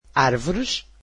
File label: portuguese trees